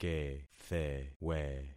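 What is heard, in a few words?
"kethewe", concatenated syllables; Affixing experiment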